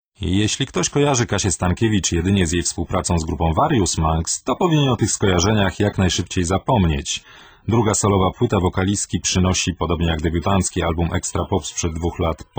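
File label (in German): stimmprobe, männer aus aller welt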